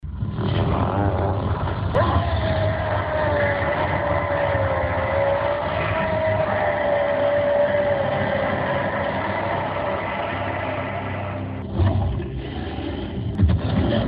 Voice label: Hulk Angry

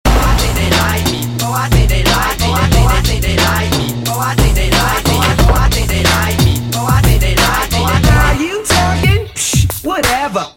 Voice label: Girl Talk

